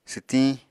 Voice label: "swtiñ", Apatani